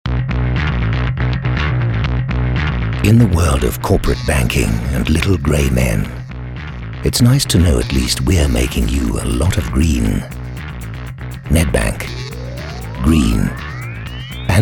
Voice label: CORPORATE DEEP